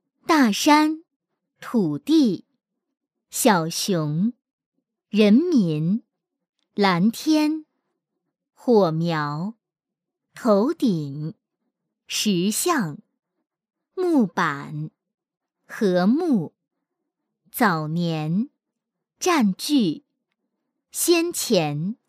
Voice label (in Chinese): 女 动画课 识字; 橙橙识字